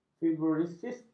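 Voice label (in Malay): "fibroisitis", Malay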